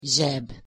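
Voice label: "zseb", Hungarian pronunciation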